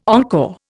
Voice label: "encore", Indian

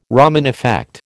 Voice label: "Raman effect", American

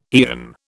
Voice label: "aeon", British

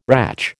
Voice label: "ratch", American

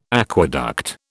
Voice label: "aqueduct", British

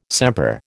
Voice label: "sempre", American

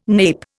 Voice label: "nape", Indian

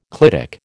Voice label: "clitic", American

